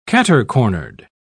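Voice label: "cater-cornered", British English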